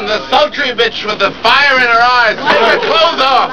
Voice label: sultry